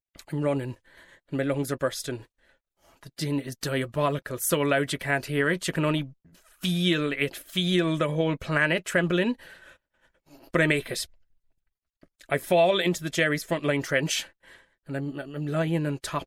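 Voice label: English Irish General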